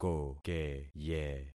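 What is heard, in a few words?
"kokeye", concatenated syllables; Affixing experiment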